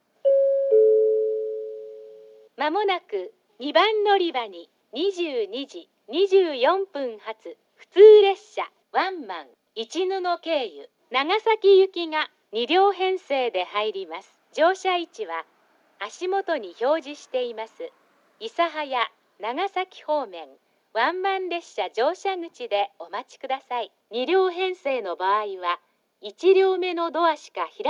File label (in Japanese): 2番のりば予告放送; ハウステンボス; 2番のりば予告放送（普通ワンマン・市布経由・長崎行き）; ※無人時間帯の列車